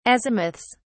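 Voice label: "azimuths", British English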